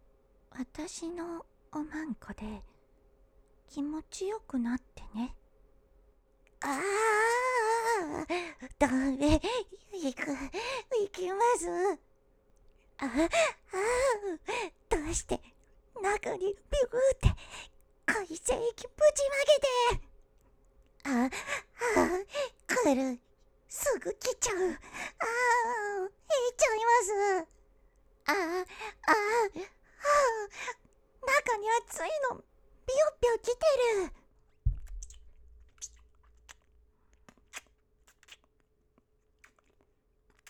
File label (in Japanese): セリフ特典集, ASMR